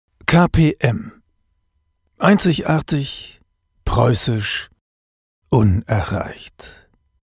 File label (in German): Werbung; Koenigliche Porzellan Manu